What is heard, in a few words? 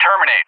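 "terminate", English; JTAC; DCS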